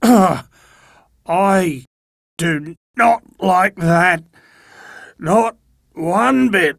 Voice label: Downed, en, Dialog Barks Battle; Warframe; Chipper

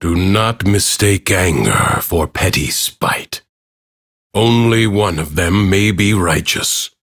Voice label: Ambient Bark Angry; Duviri; Teshin

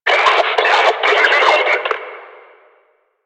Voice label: Player Spotted Attack, Barks Battle

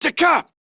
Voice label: Surprised Male, Battle Barks; en; Modular Corpus

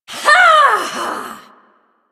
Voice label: Ability Throw, Barks; Warframe; Corpus Lich; Persona D

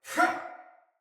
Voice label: Attack Soldier Male; Sinecure Soldier Male A

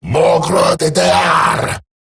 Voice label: Cover Me Eximus Grineer Male; Battle Barks

